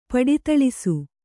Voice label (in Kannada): "ಪಡಿತಳಿಸು", kannada